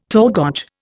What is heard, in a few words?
"torgoch", Indian